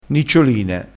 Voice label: "niccioline", crespinese

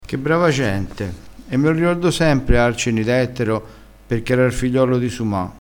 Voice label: "carcio", crespinese

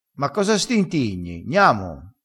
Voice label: "stintigna", vocabolario dialettale crespinese

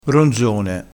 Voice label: "ronzone", crespinese

